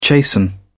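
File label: "chasten", British English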